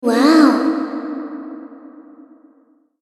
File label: Gag wao sexy